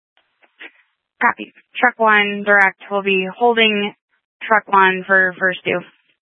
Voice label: Tactical Incidents, scanner

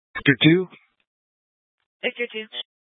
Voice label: Sheriff Dispatch; Mesa County

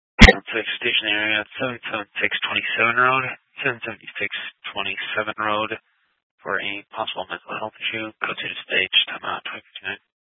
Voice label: Grand Junction Fire Department Dispatch

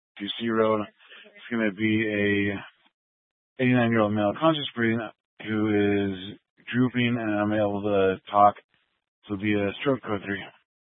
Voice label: Grand Junction Fire Department Dispatch

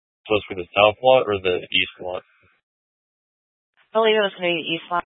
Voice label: Police Department Dispatch

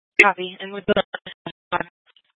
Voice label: Sheriff Dispatch; Mesa County

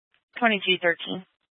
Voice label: Fire Dispatch, Scanner audio recording

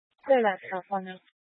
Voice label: Police 1 Dispatch

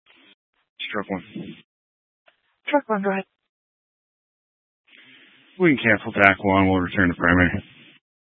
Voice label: Tactical Incidents, scanner

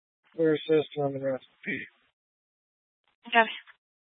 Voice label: Police Dispatch

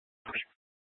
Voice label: Police Dispatch, scanner; Police 1 Dispatch

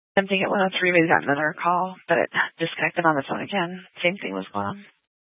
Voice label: Sheriff Dispatch; Mesa County

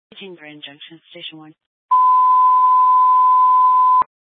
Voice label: Fire Department Dispatch, scanner; Grand Junction

